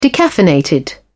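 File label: "decaffeinated", English, British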